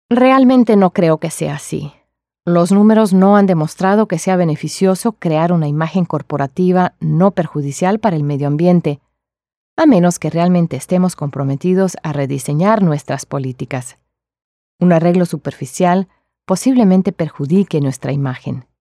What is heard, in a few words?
Spanish Character; Voice Samples; Foreign Language